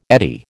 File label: "eddy", American